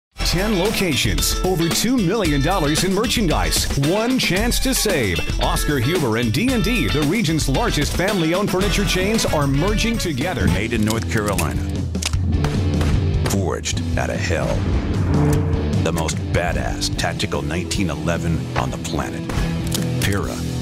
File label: male american voice over sales, english american